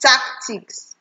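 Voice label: "tactics", Nigerian Pidgin, female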